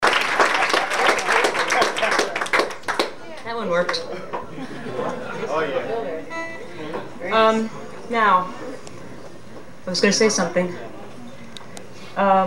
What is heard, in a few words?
Live Patter; Tin Angel